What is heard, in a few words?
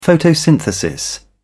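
"photosynthesis", British English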